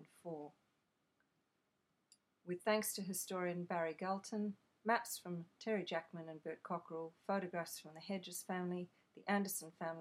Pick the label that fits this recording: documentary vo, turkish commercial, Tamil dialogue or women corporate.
documentary vo